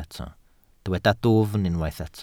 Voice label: "dwfn", Welsh